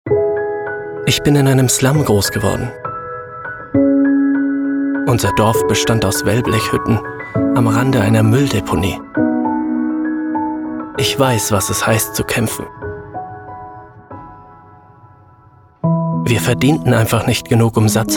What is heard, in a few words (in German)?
Sprecher Storytelling